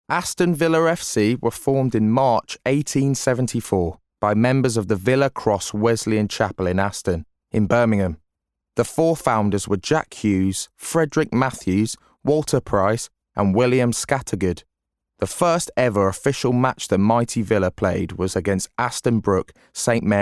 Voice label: male english brummie accent narration; english northern accents; aston villa